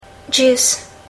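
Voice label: "juice", English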